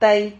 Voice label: "tai", Silabas

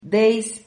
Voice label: "dehis", Silabas

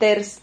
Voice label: "ters", Silabas

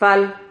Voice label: "fal", Silabas

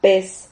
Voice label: "pes", Silabas

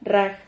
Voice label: "rraj", silabas

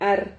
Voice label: "har", Silabas

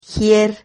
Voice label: "gier", Silabas

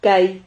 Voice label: "kai", Silabas

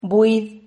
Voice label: "buid", Silabas

